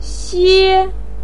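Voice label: "xue1" Chinese syllable, pinyin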